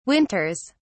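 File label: "winters", British English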